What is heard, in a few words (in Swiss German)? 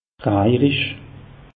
"rajerisch", alsace; meteo